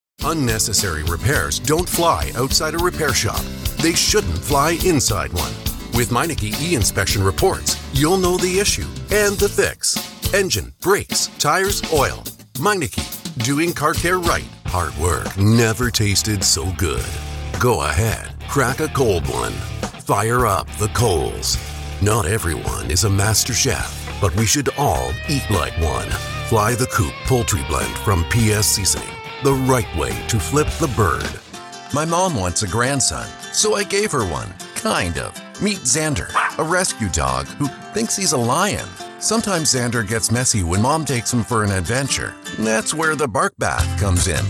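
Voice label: Canadian English, male, comm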